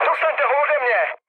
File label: aircraft damaged, speech cze, mood low